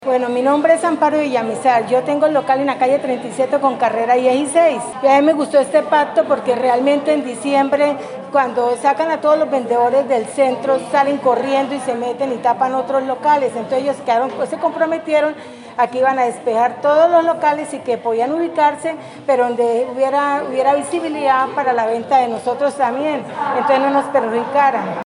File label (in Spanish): comerciante formal